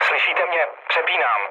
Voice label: chat, speech Czech; War Thunder